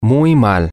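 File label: very bad, spanish, male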